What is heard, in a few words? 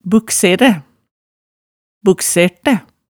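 "bukseræ, buksertæ", Hemsedal dialect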